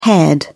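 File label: "haired", British English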